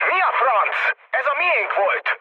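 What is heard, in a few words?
Hungarian player killed ally mood med, speech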